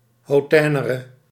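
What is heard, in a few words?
"hautainere", Dutch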